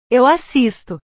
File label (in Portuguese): "eu assisto", portugues